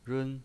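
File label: "run", Mandarin Chinese pinyin voice, first tone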